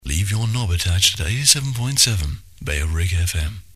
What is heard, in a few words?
leave knob attached to 87.7 (male, no bed), jingles; jingles ads 1994; bailrigg fm; bfm jingles ads RSL 1994